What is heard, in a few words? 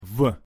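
"в" Russian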